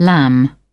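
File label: "lamb", British English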